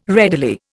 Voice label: "readily", Indian